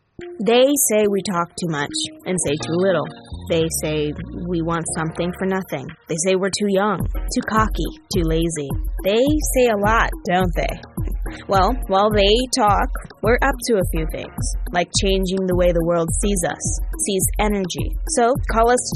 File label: Narration Business